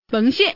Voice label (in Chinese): peng, woman; mahjong paohuzi; youxian